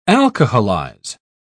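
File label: "alcoholize", British English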